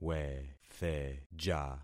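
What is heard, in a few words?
"wetheja", concatenated syllables; Affixing experiment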